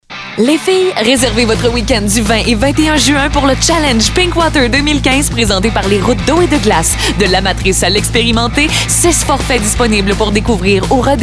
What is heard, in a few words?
promo; pink water; nrj